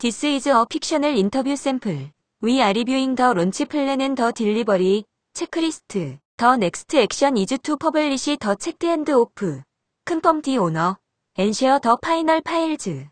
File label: harbor interview cleaned